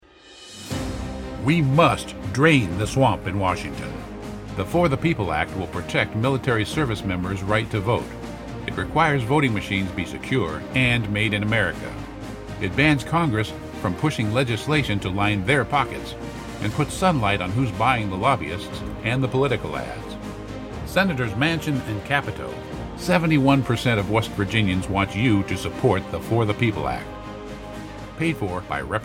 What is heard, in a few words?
Radio Spot; Represent Us; For the People Act